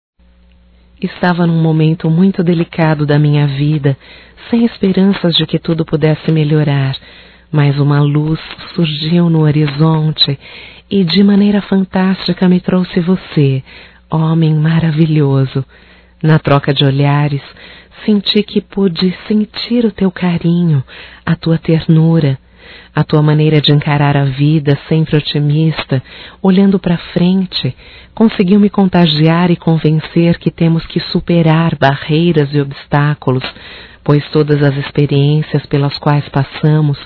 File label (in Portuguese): Romântico Feminino